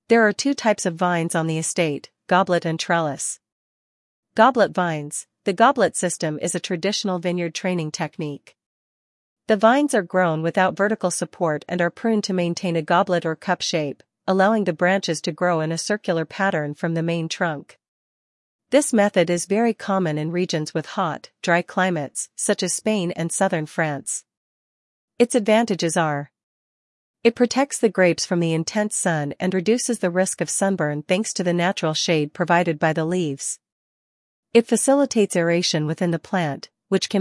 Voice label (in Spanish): tipos de vides ING; entornoyvinedos